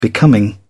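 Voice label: "becoming", British English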